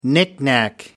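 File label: "knickknack", English, British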